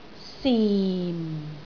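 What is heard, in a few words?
"sim4", Cantonese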